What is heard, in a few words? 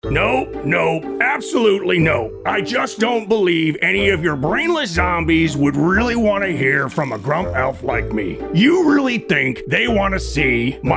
Animation